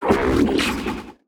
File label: Automaton Alert Line; NPC; Heist Robot Spotted